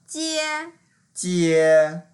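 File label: "jie1" Mandarin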